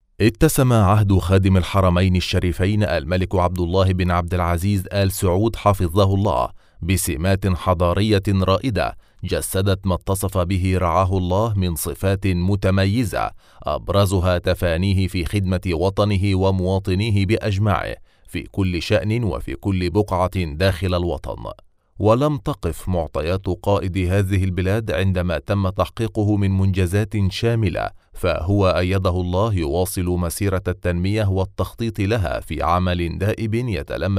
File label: arabic documentary